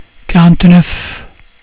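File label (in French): "quarante-neuf", French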